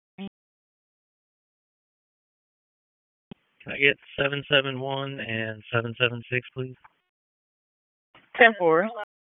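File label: Raleigh Police Southwest District Dispatch; p25; wake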